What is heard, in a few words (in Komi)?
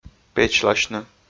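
"печласьны", Komi, male; audio dict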